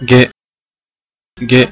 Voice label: hebrew pronunciation g